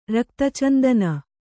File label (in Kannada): "ರಕ್ತಚಂದನ", Kannada